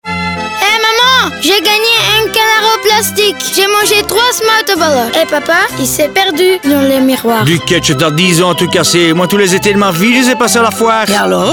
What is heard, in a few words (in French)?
RADIO; Foire du Midi 2025; FR; Neutre; Foire du Midi Zuidfoor